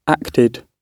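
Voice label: "acted", British English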